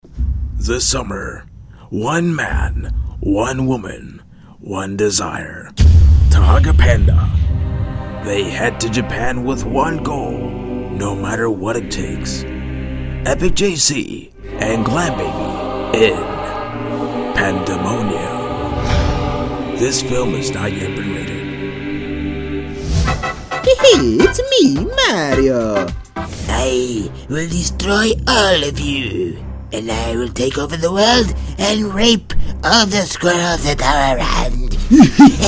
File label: voice demo